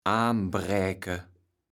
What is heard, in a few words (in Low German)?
"aanbräke", platt